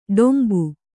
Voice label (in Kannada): "ಡೊಂಬು", kannada